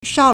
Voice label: "shao4", Chinese